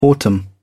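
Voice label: "autumn", English, British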